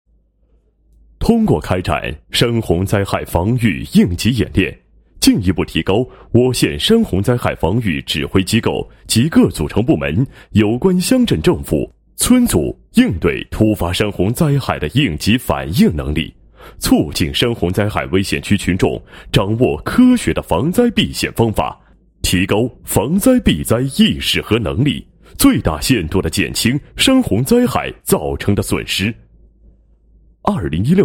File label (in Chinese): 男 解说专题 方案解说; 山洪灾害防御应急演练方案解说